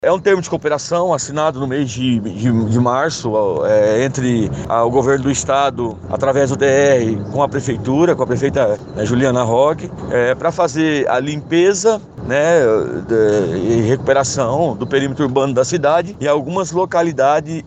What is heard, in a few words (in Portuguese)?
VOZ TAPA BURACOS; pimenta bueno